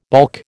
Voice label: "baulk", American

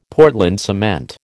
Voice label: "Portland cement", American

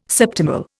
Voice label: "septimal", Indian

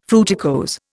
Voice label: "fruticose", Indian

